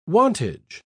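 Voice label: "wantage", English, British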